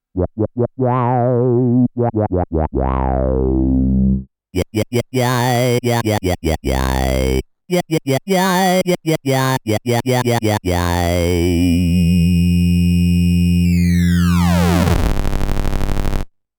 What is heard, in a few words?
Talking Lo-Fi; Korg DCM8